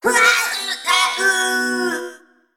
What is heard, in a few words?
Female Mech Death